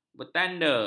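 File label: "bertanda", Malay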